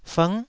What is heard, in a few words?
"fng9", Taiwanese